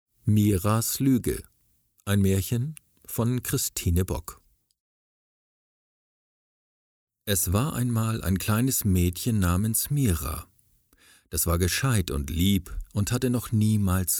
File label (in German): Werbetext Maerchen